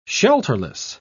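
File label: "shelterless", British English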